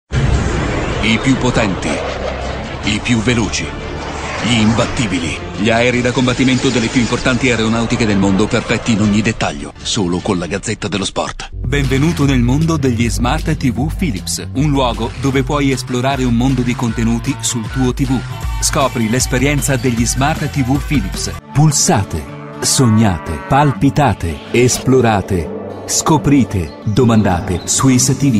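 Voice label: italian male commercial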